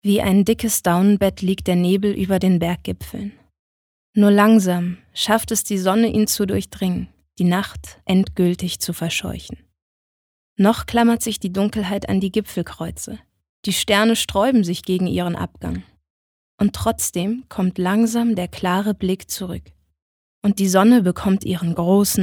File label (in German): Schauspiel Variabel